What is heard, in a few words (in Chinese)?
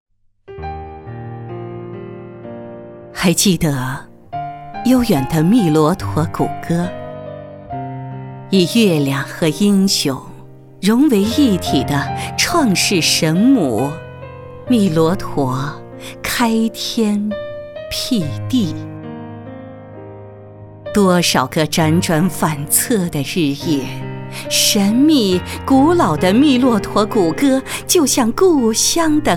宣传片; 天上巴马